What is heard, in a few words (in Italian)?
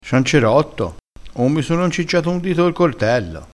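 "incicciassi", crespinese dialettale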